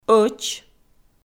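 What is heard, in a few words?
"ӧч", Komi, female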